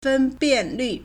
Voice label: "fen1bian4lv4", Chinese

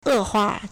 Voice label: "e4hua4", Chinese; 恶化; 惡化; èhuà; verb; to worsen

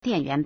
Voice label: "dian4yuan2", Chinese

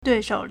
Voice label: "dui4shou3", Chinese

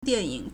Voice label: "dian4ying3", Chinese

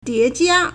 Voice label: "die2jia1", Chinese

